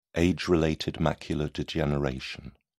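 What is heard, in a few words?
"age-related macular degeneration", British English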